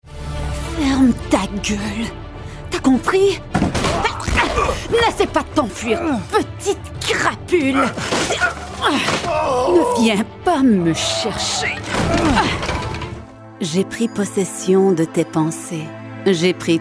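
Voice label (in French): Game Animation French Canadian; Demo Reel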